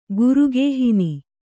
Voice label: "ಗುರುಗೇಹಿನಿ", Kannada

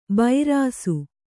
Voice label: "ಬೈರಾಸು", Kannada

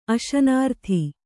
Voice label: "ಅಶನಾರ್ಥಿ", Kannada

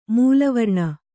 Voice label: "ಮೂಲವರ್ಣ", Kannada

